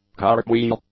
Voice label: "cartwheel", English; nouns